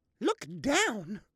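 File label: "look down", English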